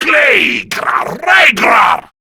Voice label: Player Spotted, Male